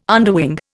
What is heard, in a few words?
"underwing", Indian